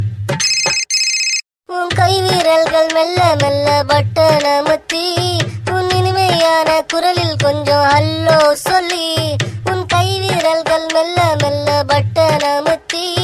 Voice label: tamil phone, funny ringtones

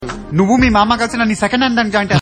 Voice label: funny dialogue

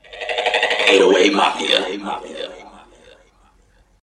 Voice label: Tag Male; Mafia

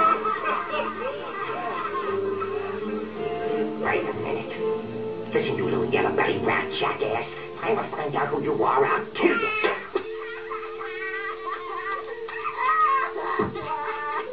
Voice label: prank phone calls

